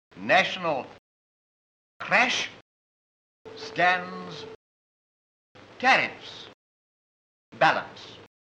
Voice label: rp trap; stanley baldwin

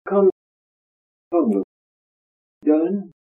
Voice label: kent strut, accents; appledore

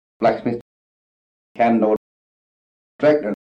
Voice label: kent goudhurst trap, accents

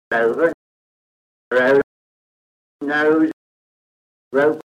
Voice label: kent farningham goat, accents

